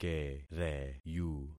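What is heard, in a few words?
"kereyu", concatenated syllables; Affixing experiment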